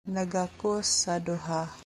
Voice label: Cebuano